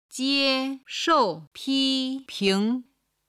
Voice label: "jieshou piping", Chinese